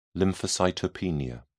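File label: "lymphocytopenia", English, British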